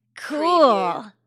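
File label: Woman Says Cool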